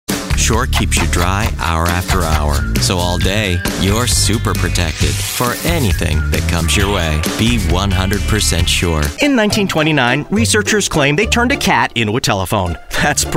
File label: Commercial